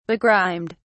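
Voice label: "begrimed", en, uk British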